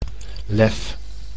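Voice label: "lef", Dutch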